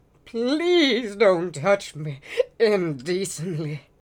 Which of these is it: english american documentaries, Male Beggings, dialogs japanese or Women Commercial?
Male Beggings